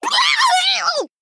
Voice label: Female Plate Death